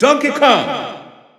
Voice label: French Announcer; Donkey Kong; SSBU